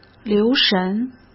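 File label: "留神", Mandarin Chinese; chinese-flashcards; hsk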